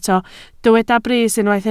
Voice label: "brys", welsh

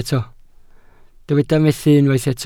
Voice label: "methu", Welsh

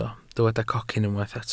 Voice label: "cocyn", welsh

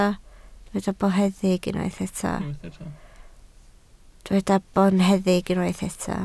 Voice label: "boneddig", Welsh

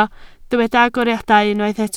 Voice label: "agoriadau", Welsh

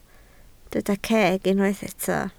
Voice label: "ceg", Welsh

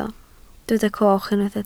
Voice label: "coch", Welsh

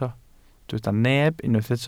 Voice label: "neb", Welsh

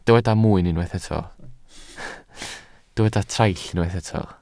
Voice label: "traill", Welsh

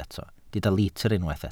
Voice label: "litr", Welsh

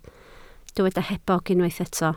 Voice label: "hebog", Welsh

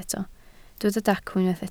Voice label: "dacw", Welsh

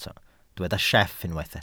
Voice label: "sieff", Welsh

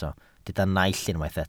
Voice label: "naill", welsh

